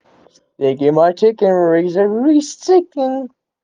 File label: voice message